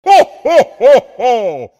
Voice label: santa clause ho ho ho

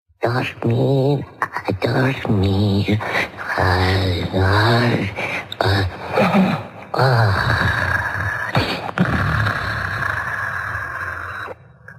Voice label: cute puppet talking; teledos

